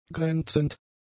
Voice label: "grenzend", German; adjectives